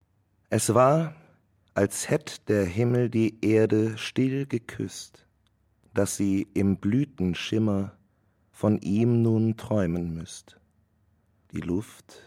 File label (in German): lyrisch